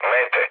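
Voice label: Serbian enemy detected mood low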